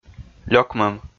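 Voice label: "лёкмӧм", Komi, male; kpv audio dict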